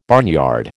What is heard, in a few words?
"barnyard", American